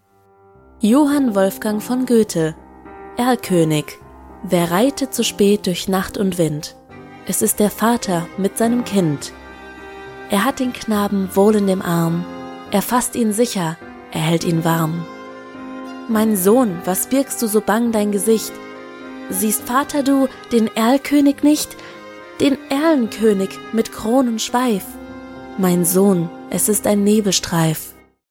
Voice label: GERMAN